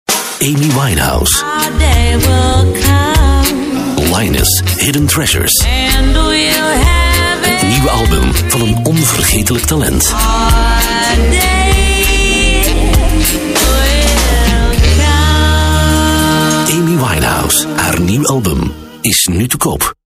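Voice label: flemish male commercial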